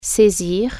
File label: grab, french